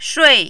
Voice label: "shui4", Mandarin